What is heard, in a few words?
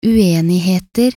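"uenigheter", Norwegian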